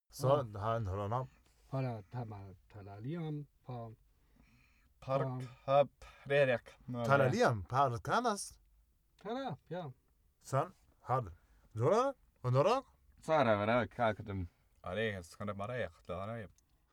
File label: talk calm close